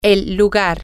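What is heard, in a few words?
the place, Spanish, female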